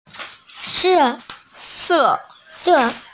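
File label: "se4", Mandarin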